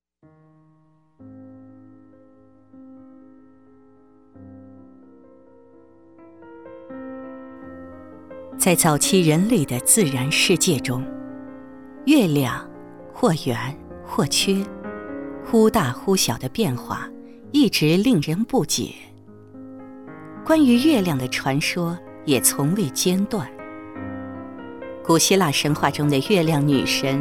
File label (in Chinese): 解说 科普类